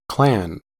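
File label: "clan", English, US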